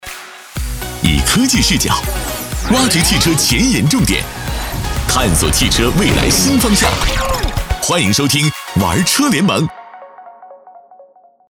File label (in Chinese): 男 交通广播 玩车联盟 新方向; 武汉交通广播